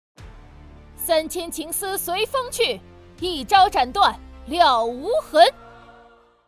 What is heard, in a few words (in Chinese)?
女将军 飒爽英气